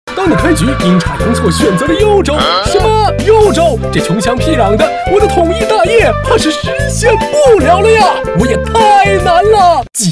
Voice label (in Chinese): 抖音游戏信息流推广